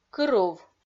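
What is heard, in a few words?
"кырув", Komi, female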